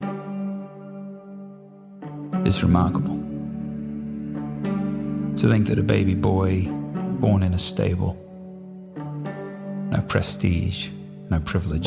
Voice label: Christmas Monologue